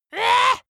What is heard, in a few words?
dwarf disgusted